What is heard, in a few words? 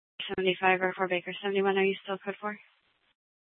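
Police Department Dispatch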